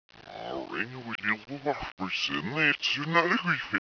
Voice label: gibberish